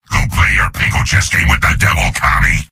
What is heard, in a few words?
soldier domination heavy; source engine; tf; mvm